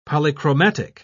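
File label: "polychromatic", British English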